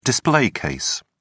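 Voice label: "display case", British English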